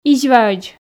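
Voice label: "изьваӧдз", Komi, female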